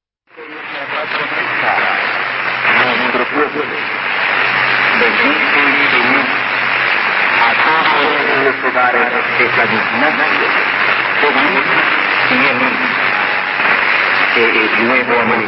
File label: San Ignacio de Moxos 4899 kHz, Bolivia; R San Ignacio